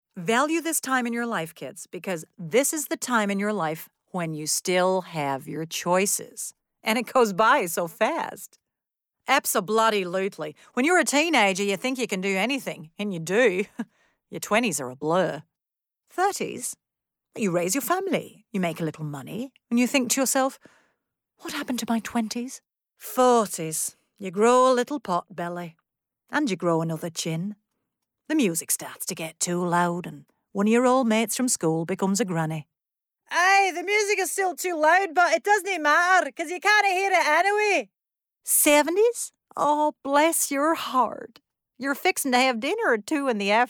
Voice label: Accent